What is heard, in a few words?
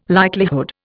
"likelihood", Indian